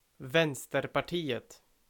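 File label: "Vänsterpartiet", Swedish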